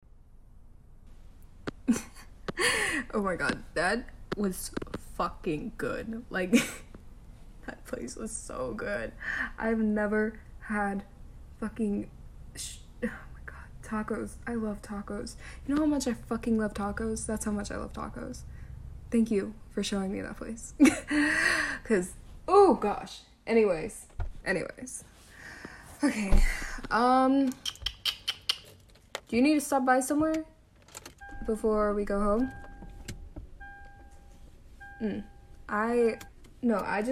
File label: Taking my Road Rage out on You, SFX, conversational, CAR SCREECH, frustration; F4F; exhibitionism; good girl; eating you out